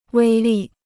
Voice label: "威力", Chinese

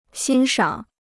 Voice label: "欣赏", Chinese

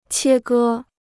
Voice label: "切割", Chinese

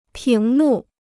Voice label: "屏幕", Chinese

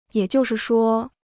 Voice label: "也就是说", Chinese

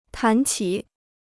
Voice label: "谈起", Chinese

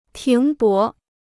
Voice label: "停泊", Chinese

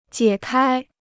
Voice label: "解开", Chinese

